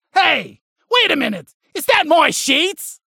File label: Halloween Slap Ghost Spacerig